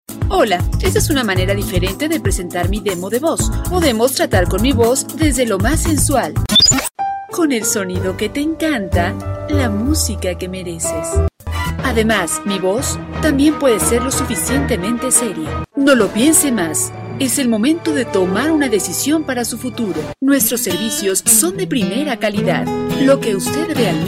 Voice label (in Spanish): Locutora mexicana